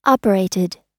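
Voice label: "operated", American English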